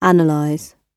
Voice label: "analyse", Pronunciation